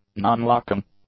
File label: "non-locking", english; adjectives